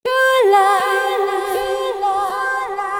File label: voice FX, Drum+Bass, 160; eJay